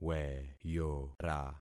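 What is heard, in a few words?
"weyora", concatenated syllables; Affixing experiment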